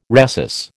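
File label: "rhesus", American English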